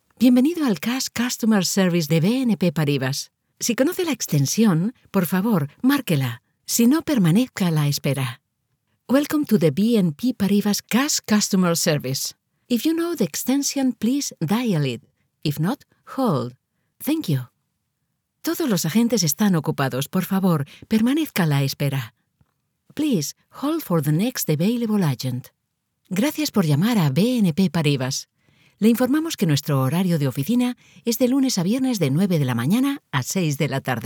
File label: IVR; BNP PARIBAS